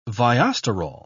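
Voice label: "viosterol", English, British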